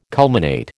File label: "culminate", American